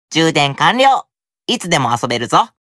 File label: Notification Voice; Luke